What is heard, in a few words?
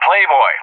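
"Playboy", English; JTAC; Callsign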